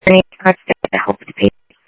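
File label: fire radio dispatch, Phoenix Fire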